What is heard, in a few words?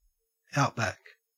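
"outback", Australian English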